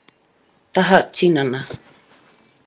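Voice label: "taha tinana", Māori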